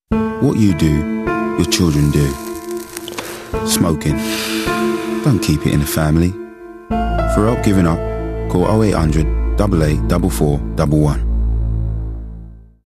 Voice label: Ad Smoking cautionary London Urban